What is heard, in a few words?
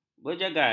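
"berjagal", Malay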